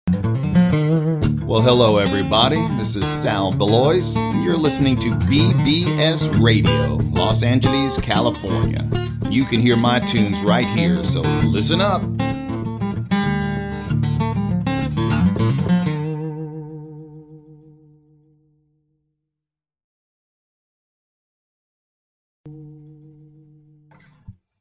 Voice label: bbs radio promo